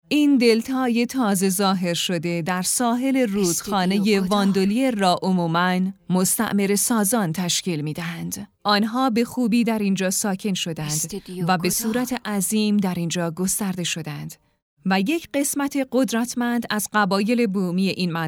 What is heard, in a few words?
documentary